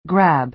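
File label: "grab", American English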